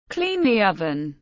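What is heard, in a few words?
"clean the oven", English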